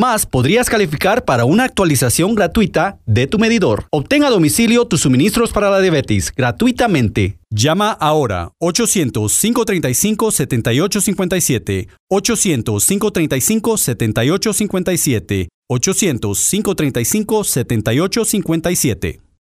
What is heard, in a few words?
Medicare Spanish